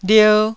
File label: "lier1", Taiwanese syllable